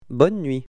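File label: "bonne nuit", French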